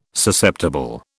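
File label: "Susceptible", British English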